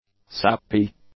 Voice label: "sappy", English; adjectives